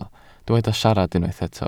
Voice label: "siarad", Welsh